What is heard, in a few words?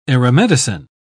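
"aeromedicine", English, uk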